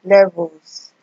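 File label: "levels", Nigerian Pidgin, female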